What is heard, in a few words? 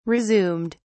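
"resumed", English, British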